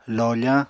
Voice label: "lofañ", Apatani